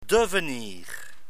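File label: "devenir", French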